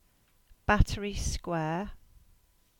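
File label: "Battery Square" English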